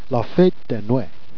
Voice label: "fête de Noue", Jèrriais